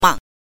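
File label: "mang", Mandarin Chinese pinyin, neutral tone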